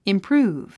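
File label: "improve", English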